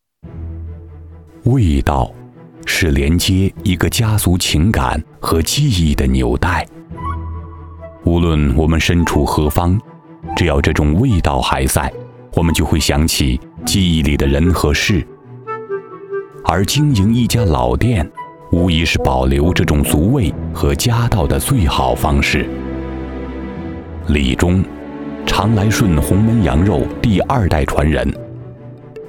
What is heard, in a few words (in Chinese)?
男 专题; 常来顺红焖羊肉